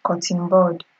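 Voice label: "cotton bud", Nigerian Pidgin, female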